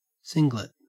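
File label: "singlet" English, Australian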